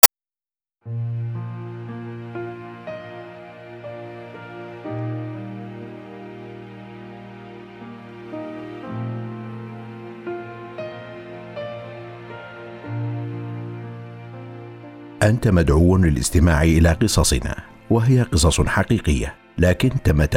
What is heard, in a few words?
ARABIC